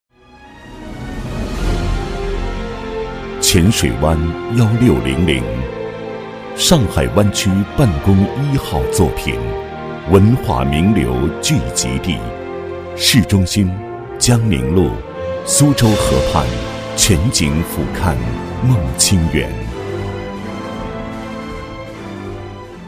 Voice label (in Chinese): 男 房地产广告 宣传广告, 国语男声配音 大气抒情; 梦清缘宣传广告